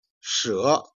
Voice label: "jian", Chinese

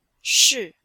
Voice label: "shi4", chinois; Cours-de-Chinois

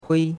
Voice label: "hui1", chinois; Cours-de-Chinois

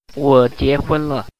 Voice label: Mandarin Conversation

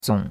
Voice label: "zong3", chinois; Cours-de-Chinois

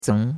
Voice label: "zeng2", chinois; Cours-de-Chinois

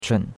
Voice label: "chun3", chinois; Cours de Chinois

